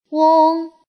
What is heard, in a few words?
"ong", Chinese; 중국어 복운모, 부성운모 연습